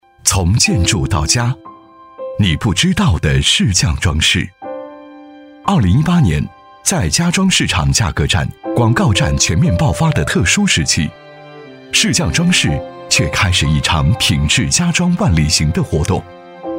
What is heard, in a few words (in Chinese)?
男 专题 装饰（讲述大气）; 世匠装饰